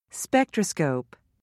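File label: "spectroscope", American English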